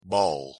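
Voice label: "ball" pronunciation English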